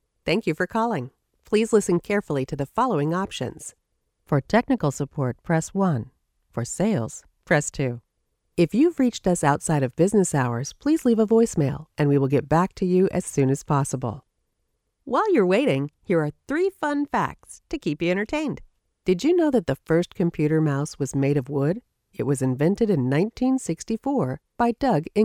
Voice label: ivr